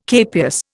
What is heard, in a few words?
"capias", Indian